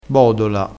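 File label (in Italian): "bodola", vocabolario dialettale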